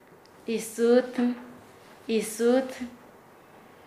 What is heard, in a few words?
"ocultarse", Nasa Yuwe